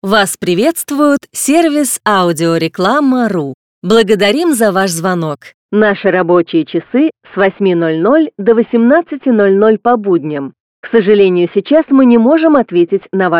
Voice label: female ivr